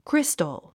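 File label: "crystal", English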